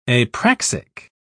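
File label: "apraxic", British English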